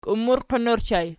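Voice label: "kiummurqin" ergative plural, Archi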